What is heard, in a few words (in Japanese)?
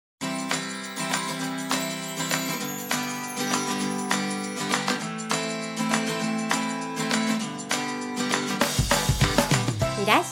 店内放送ナレーション（たい焼屋さんの待ち時間に）